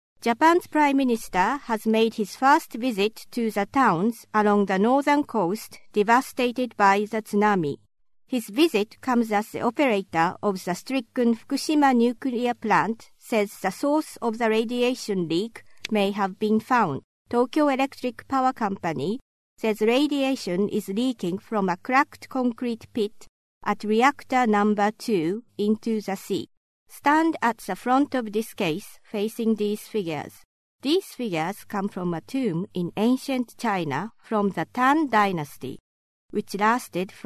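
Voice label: Japanese English, female; accent of japan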